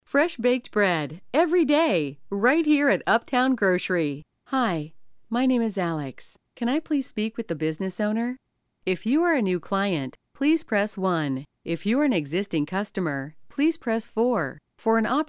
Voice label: On Hold IVR Retail Radio Robo